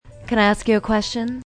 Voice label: Lady Vocal; Talking; Voice